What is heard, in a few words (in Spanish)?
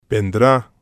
"vendra", spanish